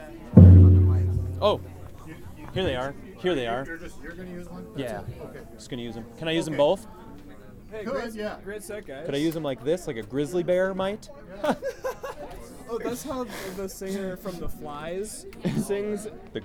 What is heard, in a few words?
banter mic check